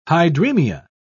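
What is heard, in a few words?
"hydremia", British English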